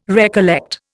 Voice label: "recollect", Indian